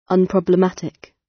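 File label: "unproblematic", British; Design and innovation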